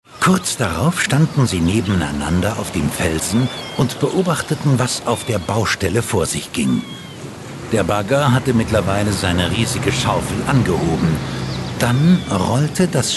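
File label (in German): hörspiel kids; drei fragezeichen kids folge 92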